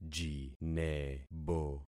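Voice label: "jinebo", concatenated syllables; Affixing experiment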